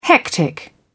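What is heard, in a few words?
"hectic", British English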